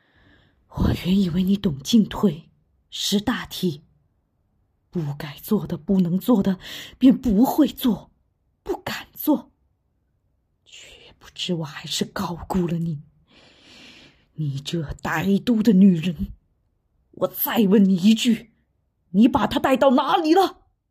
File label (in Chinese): 含恨角色